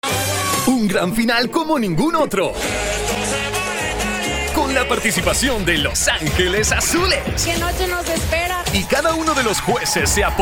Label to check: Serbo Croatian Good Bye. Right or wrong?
Wrong